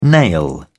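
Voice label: "nail", British English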